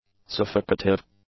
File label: "suffocative", English; adjectives